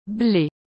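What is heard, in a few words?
"ble", French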